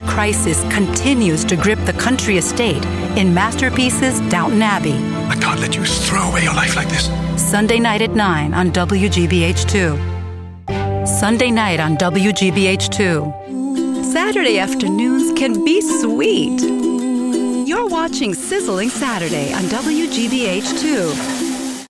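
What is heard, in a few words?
TV Promos English; WGBH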